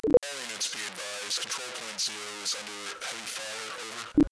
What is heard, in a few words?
radio; usmc